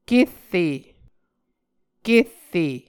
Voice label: "kerre", Muscogee